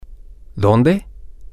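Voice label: where, Spanish, male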